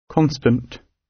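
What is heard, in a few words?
"constant", British; Language, linguistics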